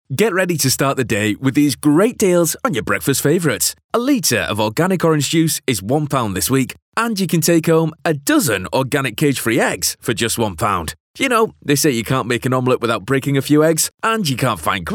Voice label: Casual Conversational